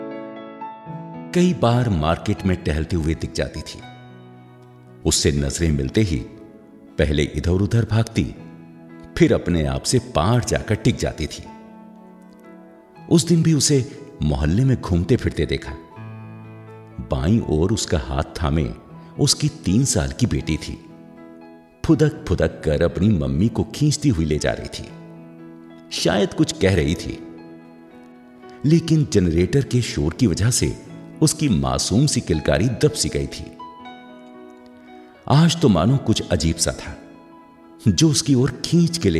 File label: audiobook, hindi